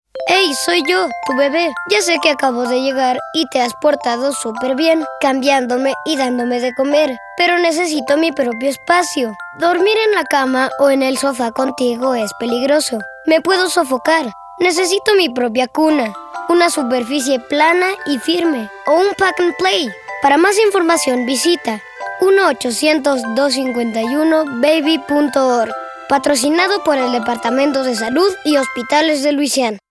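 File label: Safe Sleep Radio Spanish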